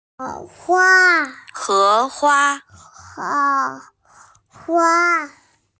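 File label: "he2hua1", Mandarin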